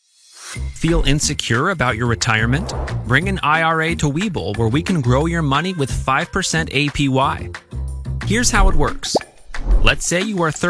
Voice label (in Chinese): 活力 广告风